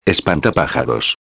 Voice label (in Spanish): "espantapajaros", espanhol; palavra do dia